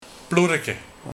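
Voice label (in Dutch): "Ploerreke", dialect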